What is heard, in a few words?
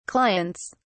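"clients", British English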